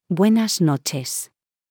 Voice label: Spanish Good night; Greetings